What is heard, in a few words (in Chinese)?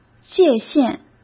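"界限", Mandarin Chinese; hsk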